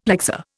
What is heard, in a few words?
"plexor", Indian; Dictionary